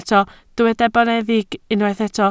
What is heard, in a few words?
"boneddig", Welsh